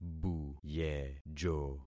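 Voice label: "buyejo", concatenated syllables; Affixing experiment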